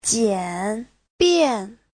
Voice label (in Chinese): "jian3bian4", Chinese